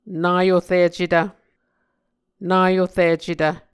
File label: "naoricetv", Muscogee